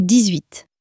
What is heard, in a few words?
"dix huit", French